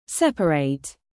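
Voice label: "content", adjective, British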